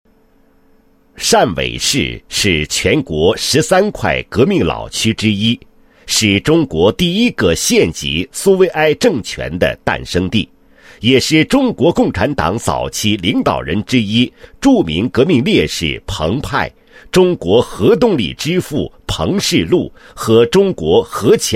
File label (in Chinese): 男 党建专题; 某市“双拥共建“工作纪实